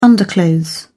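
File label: "underclothes", British English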